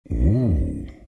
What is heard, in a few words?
treant happy